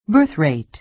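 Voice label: "birthrate", American English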